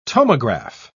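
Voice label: "tomograph", British English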